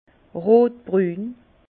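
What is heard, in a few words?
"rotbrun", Alsatian, Bas Rhin, rouge-brun; vin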